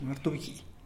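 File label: "martubgii", Tlacochahuaya Zapotec; dung beetle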